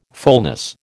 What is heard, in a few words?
"fulness", American